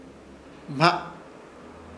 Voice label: "Hma", Ishir Chamacoco; mano

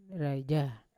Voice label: "raija" to hold, Yawa

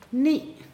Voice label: "coconut tree", Pingelapese

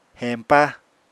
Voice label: "heñpa", apatani

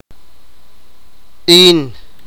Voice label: sipakapense

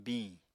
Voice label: "biñ", Apatani

